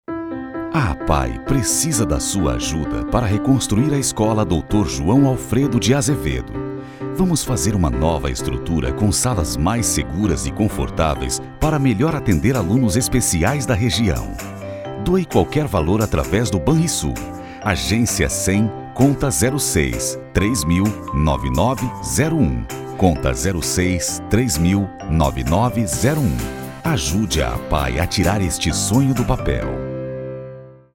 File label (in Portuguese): Spot